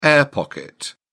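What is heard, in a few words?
"air-pocket", British English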